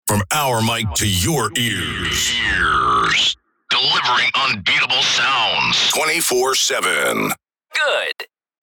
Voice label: Vocals radio voice sweeper from our mic to your ears